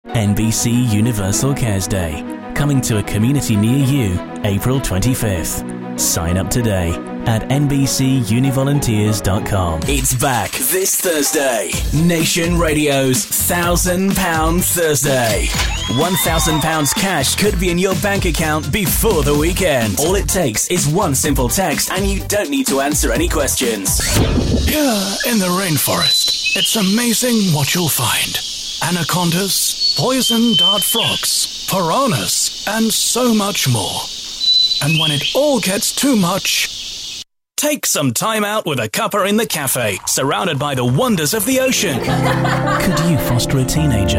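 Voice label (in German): British English Werbung Variabel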